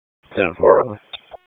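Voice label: Police District Dispatch, p25; Raleigh; Southeast; wake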